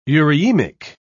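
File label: "eurhythmic", British English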